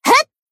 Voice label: Battle Shout; Iori

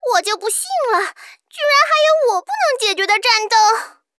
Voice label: sad

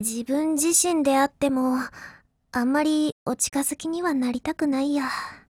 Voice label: COMBINE, JP; CZ100

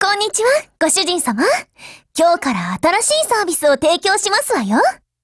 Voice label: GAIN JP; 88typeMod

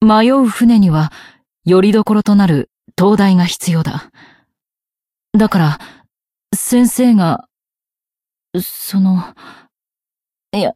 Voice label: Cafe monolog; BA; Mina

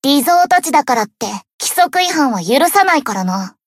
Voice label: Swimsuit Cafe Monolog; BA; Iori

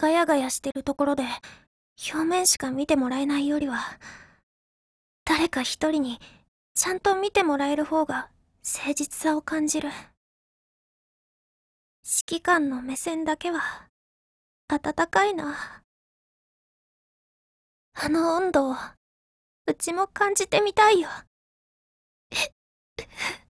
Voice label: DIALOGUE, JP; CZ100

